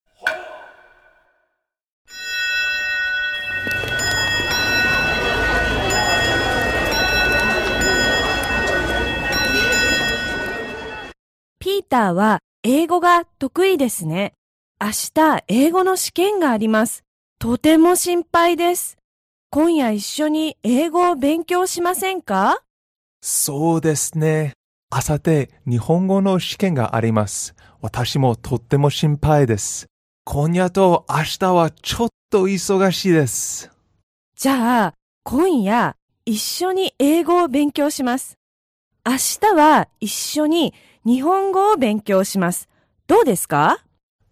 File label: dialog; JapanesePod101; Beginner Season 1